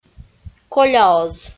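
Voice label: "коляоз", Komi, female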